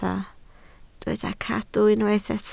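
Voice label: "cadw" Welsh, spoken word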